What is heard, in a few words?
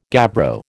"gabbro", American